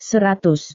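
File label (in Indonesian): "seratus", Indonesian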